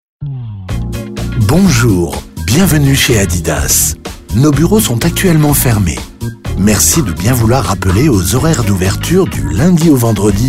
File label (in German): telefonansagen; adidas; 1a-telefonansagen